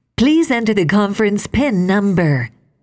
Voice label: conference connect, ivr